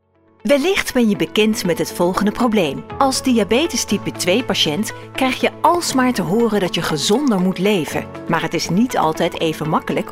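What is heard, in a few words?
e-learning, Dutch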